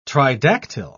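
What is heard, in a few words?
"tridactyl", British English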